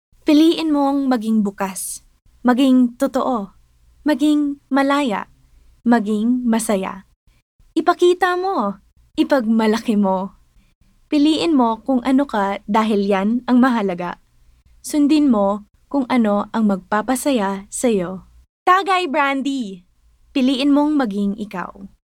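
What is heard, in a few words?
Tagalog (Conversational, Inspiring)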